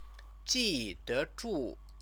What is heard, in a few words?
"jidezhu", Chinese